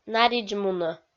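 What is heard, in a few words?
"наридз мунӧ", Komi, female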